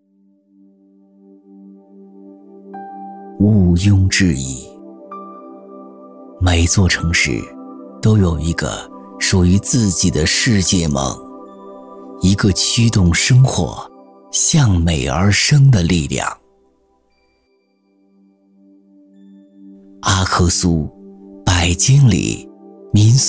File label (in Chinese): 宣传民宿综合体宣传配音